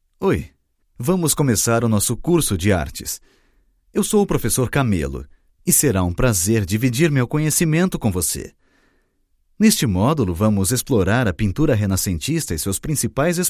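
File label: Brazilian Portuguese, male, elearning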